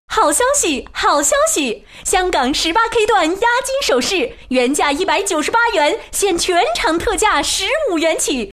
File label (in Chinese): 叫卖式, 国语女声配音; 好消息香港黄金首饰